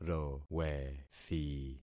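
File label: "rowethi", concatenated syllables; Affixing experiment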